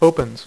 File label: "OPENS", Dealer's Speech; PowerPOKER Demo